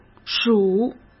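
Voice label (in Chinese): "数", Mandarin Chinese; chinese-flashcards; hsk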